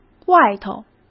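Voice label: "外头", Mandarin Chinese; hsk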